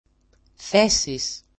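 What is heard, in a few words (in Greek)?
"thesis", Greek; θέσις; = position; ['θesis]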